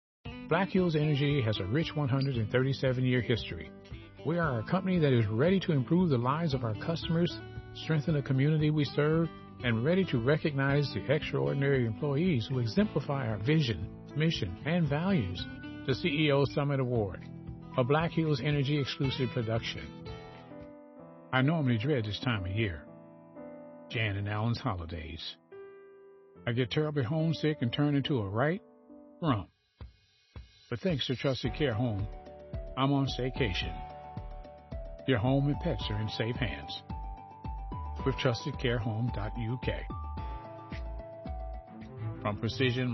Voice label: Voice Over